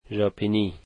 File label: "Japanese", dari